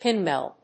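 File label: "pinwheel", English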